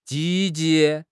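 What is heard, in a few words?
"jī jí", Chinese; HSK4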